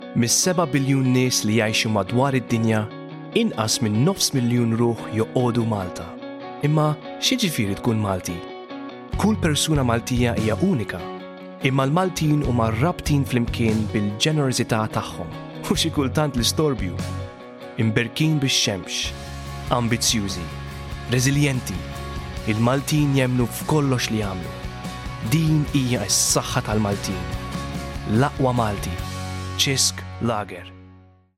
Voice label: Maltese